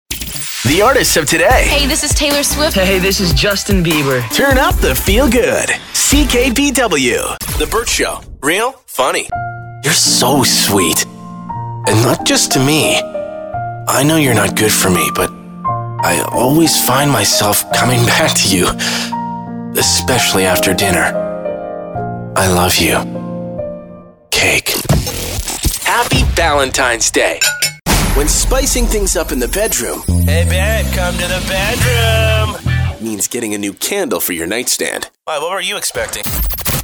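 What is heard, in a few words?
radio imaging men hot adult contemporary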